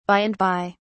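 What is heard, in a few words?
"by and by", English, British English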